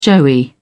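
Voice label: "joey", British English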